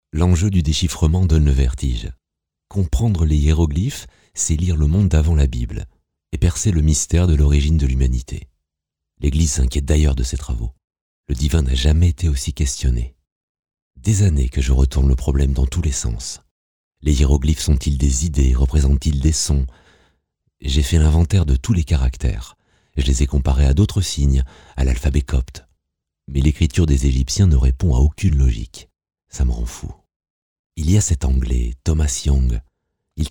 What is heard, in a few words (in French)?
docu; champollion